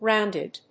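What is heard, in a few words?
"rounded", English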